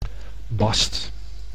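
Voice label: "bast", Dutch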